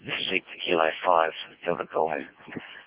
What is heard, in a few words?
decoded speech, parrot